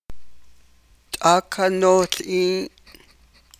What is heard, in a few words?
navajo; Don't see it